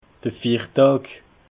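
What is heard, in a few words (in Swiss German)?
"fiirdag", Alsatian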